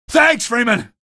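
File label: citizen evac thanks, male; vo; npc; source engine; episodic; episode 1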